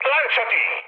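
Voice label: Italian bailout mood high, speech; War Thunder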